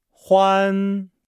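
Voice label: "huan", Chinese; Nihao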